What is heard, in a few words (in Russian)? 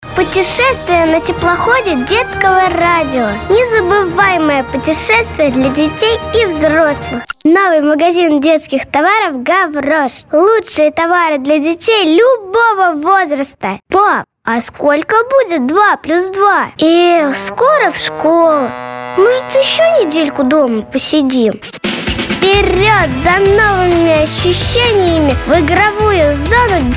detskie golosa; diktory